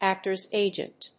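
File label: "actors agent", English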